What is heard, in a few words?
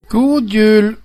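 happy xmas, swedish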